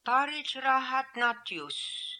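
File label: "Parijrahatnatioose", pronunciations; Fourth Way; Beelzebub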